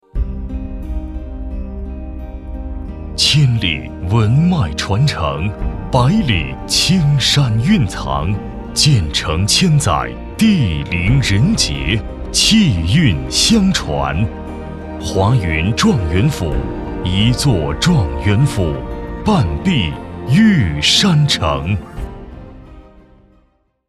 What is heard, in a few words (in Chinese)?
男 品质地产; 状元府